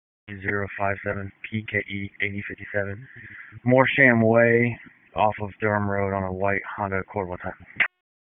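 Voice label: Sheriff's Office Dispatch 1, p25; Wake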